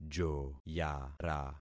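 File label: "joyara", concatenated syllables; Affixing experiment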